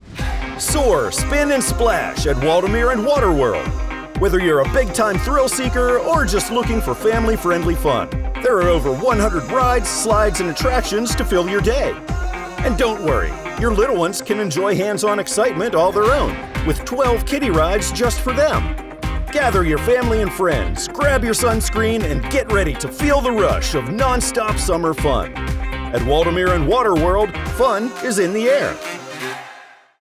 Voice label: Radio Ad; Soar Spin Splash